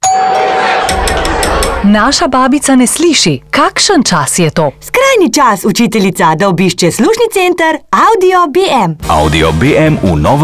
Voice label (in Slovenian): Radio Oglas; Radio AKTUAL; BM; Uciteljca vprasa